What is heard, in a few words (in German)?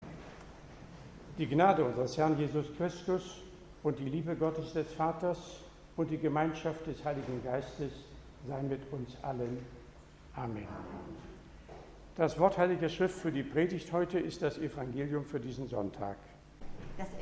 Lesepredigt; am 5. So. nach Trinitatis; Lukas 5, 1-11; Stuttgart